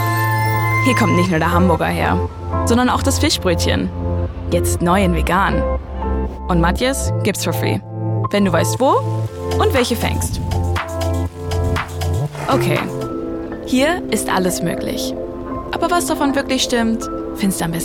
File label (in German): Imagefilm DEUTSCH; LOFT VOICES